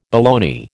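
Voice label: "baloney", American English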